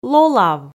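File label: "лолав", Komi, female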